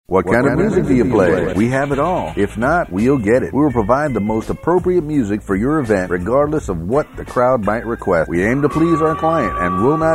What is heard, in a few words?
DJ Commercial